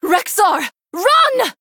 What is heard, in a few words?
Female Human Victory; Jaina